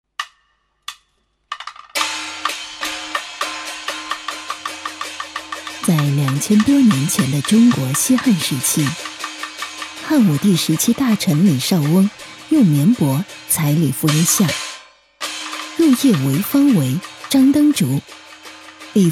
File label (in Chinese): 女 纪录片 人文历史 皮影; 汪氏皮影